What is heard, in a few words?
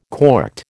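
"corked", American English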